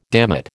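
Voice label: "dammit", American English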